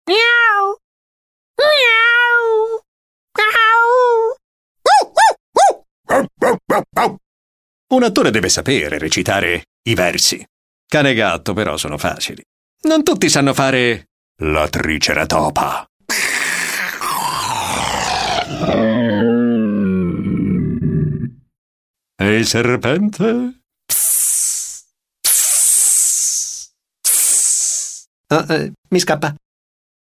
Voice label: istituzionale endecasillabi

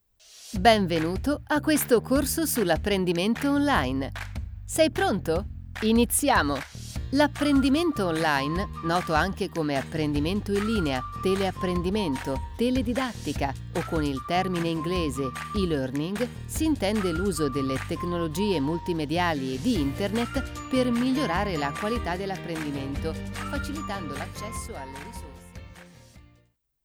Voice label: elearning corsi

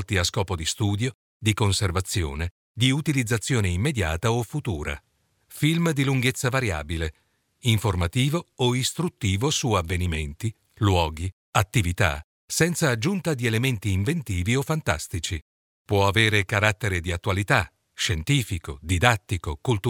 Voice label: documentario

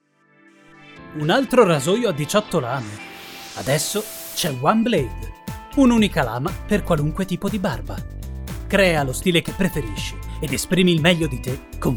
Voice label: spot neutro

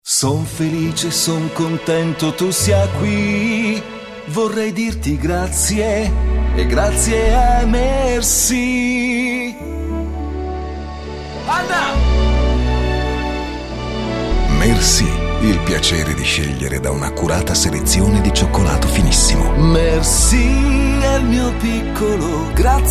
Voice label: cantando e speakerando

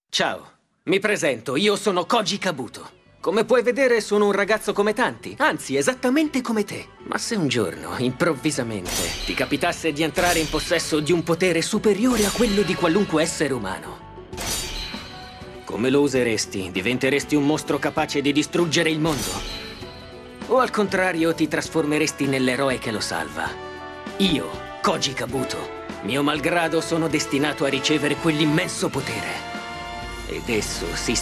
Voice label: doppiaggio; mazinger z

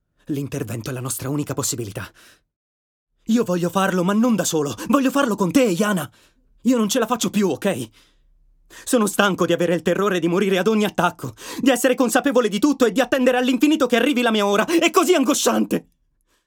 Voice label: doppiaggio intenso

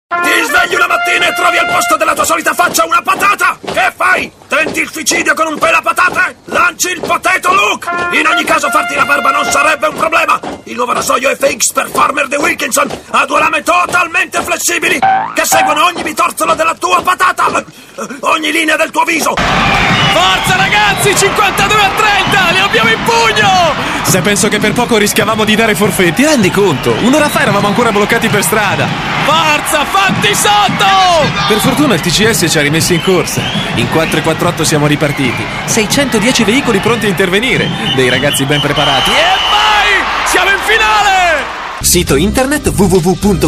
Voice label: radio spot

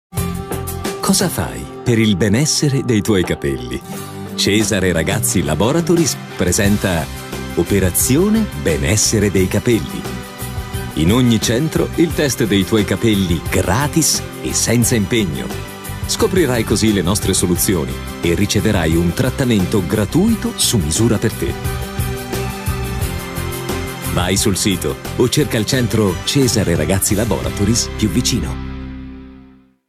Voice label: istituzionale caldo; Stimmalter: 30-60 Jahre alt

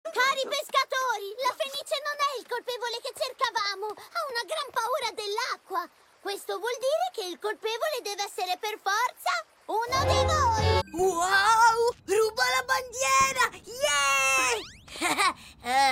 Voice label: cartoni maschietto

